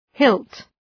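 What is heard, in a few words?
"hilt", English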